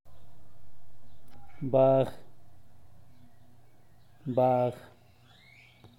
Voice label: "bag", zapoteco teotitlan